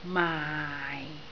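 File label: "maai4", Cantonese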